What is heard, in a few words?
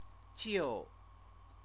"jiu", Chinese